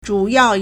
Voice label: "zhu3 yao4", Chinese